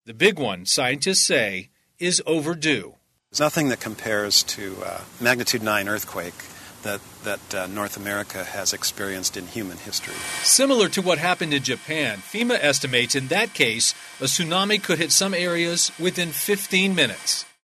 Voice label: tsunami warning